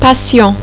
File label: "patient", French; Personality